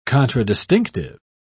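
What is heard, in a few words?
"contradistinctive", British English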